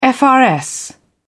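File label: "frs", British English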